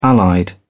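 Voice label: "allied", British English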